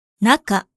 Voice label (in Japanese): "中" kunyomi, Japanese